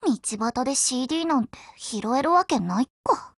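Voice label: OPERATION OVER Japanese, Voice; GF Alarm; Delisle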